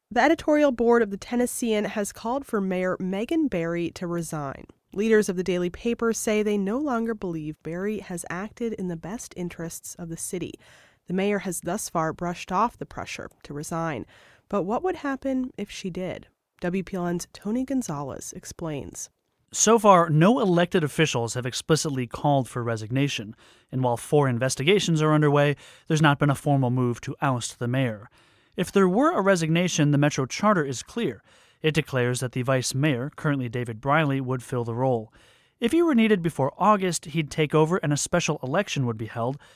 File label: explainer